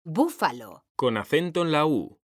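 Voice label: "BÚFALO", Spanish, female; mekanta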